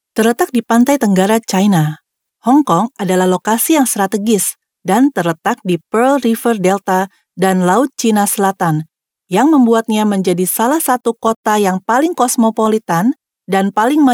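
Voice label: INDO female corporate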